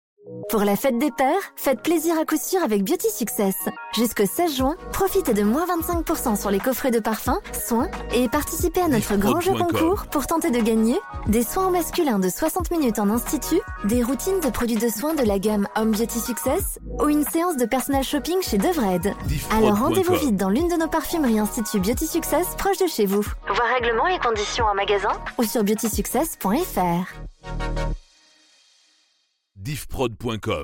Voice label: Spot Fete des Peres; Beauty Success

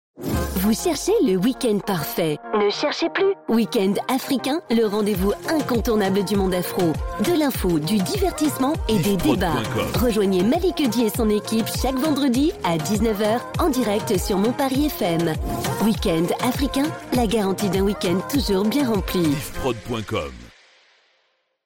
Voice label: Spot Weekend Africain; MonParisFM

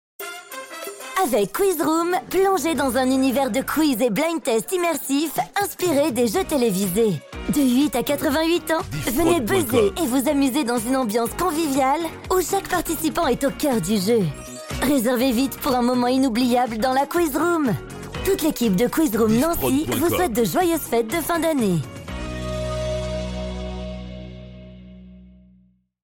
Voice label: publicite; Quiz Room

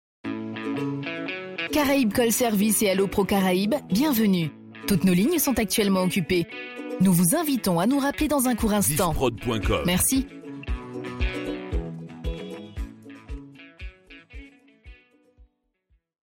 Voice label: Audiotel Ligne occupee; Caraibes Call Service

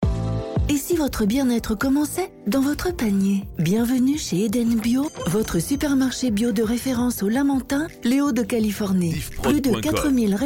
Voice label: Spot Supermarche Bio; Eden Bio

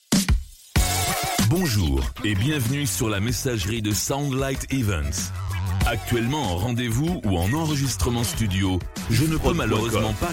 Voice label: Repondeur Voix, telephonie svi; Sound light event

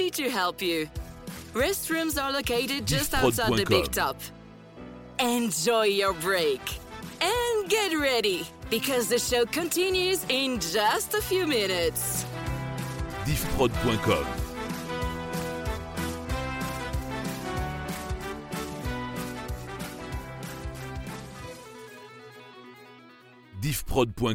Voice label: Cirque Annonce Confiserie Entracte; Cirque Mondial; Funtastic in America

